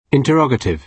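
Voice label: "interrogative", British English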